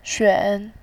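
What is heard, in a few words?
"xuan", Mandarin Chinese, tone 3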